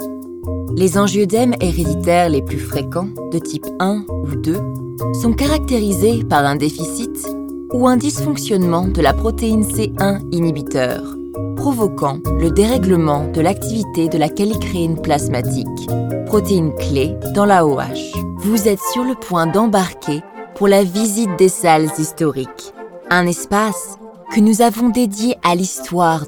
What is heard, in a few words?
French